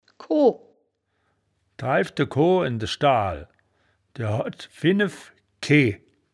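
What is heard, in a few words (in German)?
"koh", wittlicher woerterbuch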